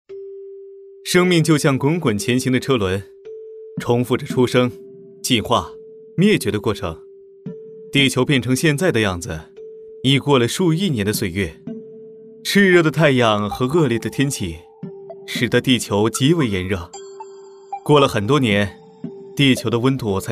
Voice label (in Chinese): 译制片